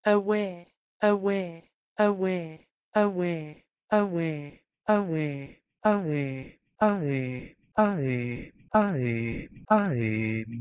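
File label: Proto-Indo-European h2weh1 to Ancient Greek aemi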